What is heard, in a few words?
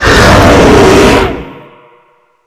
hurt; thor